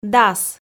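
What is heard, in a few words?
"дас", Komi, female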